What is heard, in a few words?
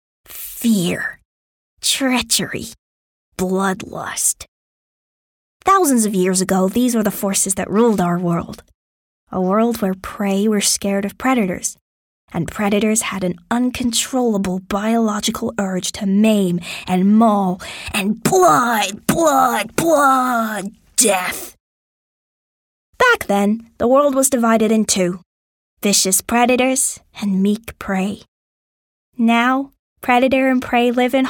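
English UK, character; GoLocalise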